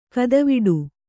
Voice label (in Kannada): "ಗತಿವಡೆ", Kannada